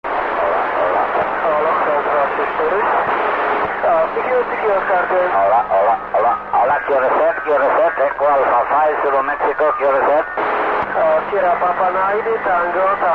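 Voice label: AO21 QSO, satellite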